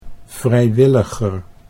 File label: "vrijwilliger", Dutch